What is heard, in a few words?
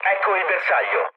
near waypoint mood low, speech it; War Thunder